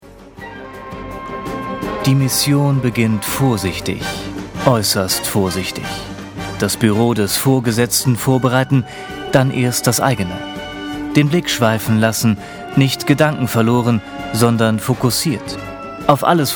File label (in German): Kommentar Cool